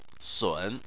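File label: "sun", Mandarin Chinese, third tone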